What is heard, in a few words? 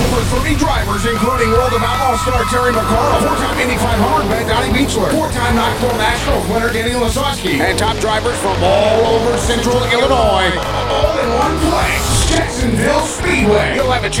monster radio